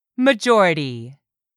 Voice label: "MAJORITY", english; 3000 words